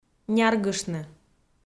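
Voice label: "няргыштны", Komi, female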